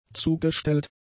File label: "zugestellt", German; adjectives